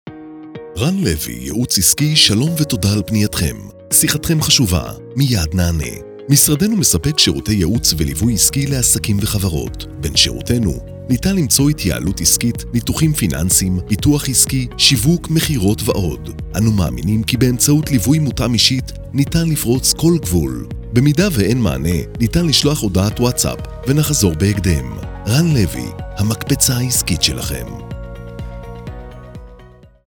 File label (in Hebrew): פתיח לטלפונייה